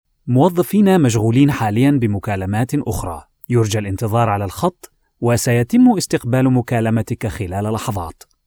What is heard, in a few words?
IVR; Q8Trade